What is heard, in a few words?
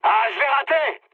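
bomb failure, mood high, French; War Thunder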